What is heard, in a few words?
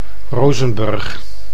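"Rozenburg", Dutch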